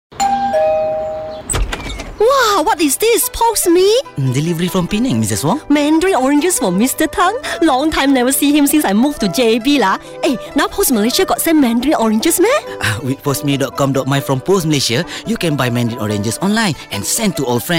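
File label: English; Pos Me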